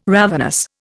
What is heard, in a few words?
"ravenous", Indian